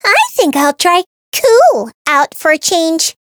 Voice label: Voice chant; ciel